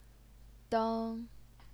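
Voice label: "deng", first tone, Chinese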